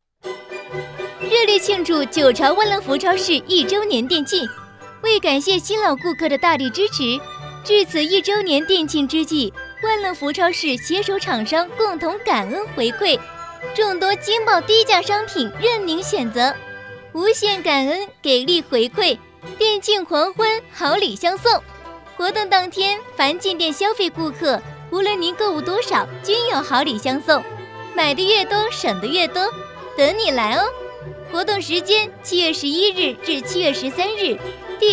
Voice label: 女; 万乐福

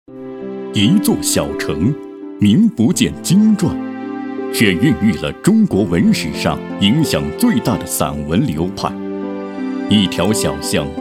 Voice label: 城市宣传片, 桐城

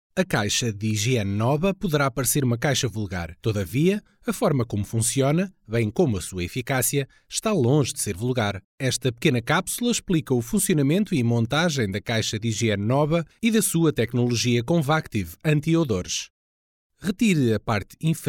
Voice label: 葡萄牙语男, 干音, 胶囊

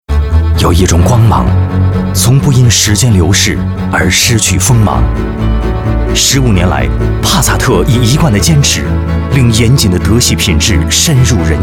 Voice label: 男 广告, 国语男声配音; 2015 帕萨特 品牌篇

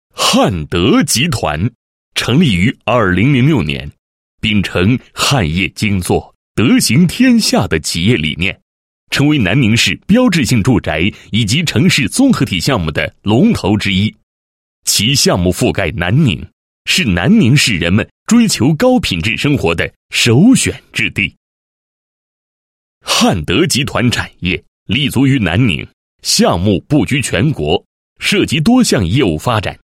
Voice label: 男 企业; 瀚德 标志性住宅

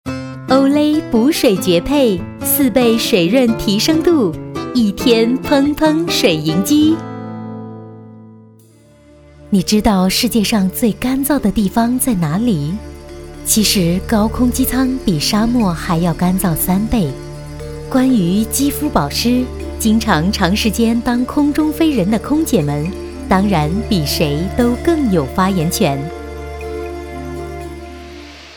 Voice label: 广告, 国语女声配音; OLAY抓水蓝精灵

